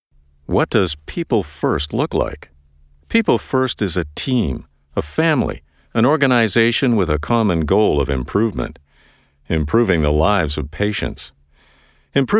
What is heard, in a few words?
english canadian narration